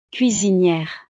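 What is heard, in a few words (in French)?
"cuisiniere", French; profesiones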